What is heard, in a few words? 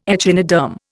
"echinoderm", Indian